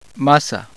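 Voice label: Spanish a, five vowels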